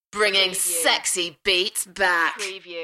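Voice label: UK female, voice drop; Bringin sexy beats back; beanstalk audio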